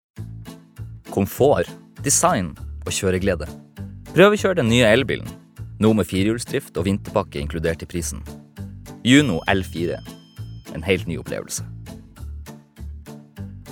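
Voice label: Norwegian reklame, mann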